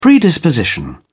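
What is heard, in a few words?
"predisposition", English, British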